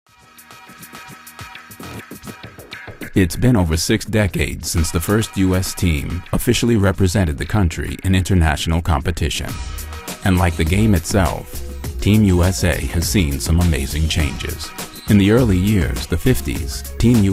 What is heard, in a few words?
narration